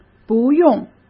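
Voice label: "不用", Mandarin Chinese; chinese-flashcards; hsk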